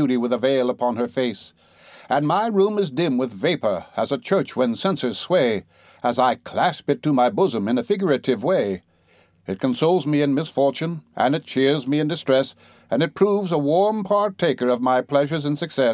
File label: my corn cob pipe, humour and dialect